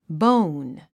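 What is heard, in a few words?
"bone", English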